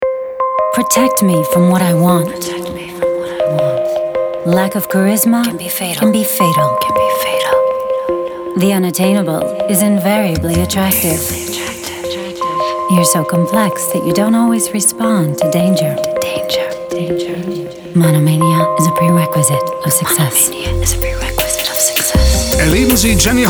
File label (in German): BMW Funkspot; Jenny Holzer